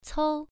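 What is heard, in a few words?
"cou" Mandarin, first tone, pronunciation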